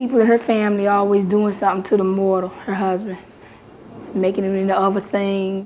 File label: Conversation with 14 year old black female Washington DC; american english dialect